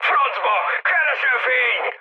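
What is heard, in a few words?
Hungarian searchlite mood high, speech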